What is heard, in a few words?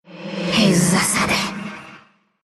attack, ru; dota2